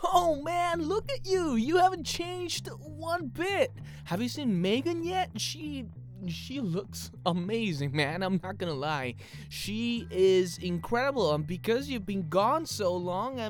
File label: ANIMATION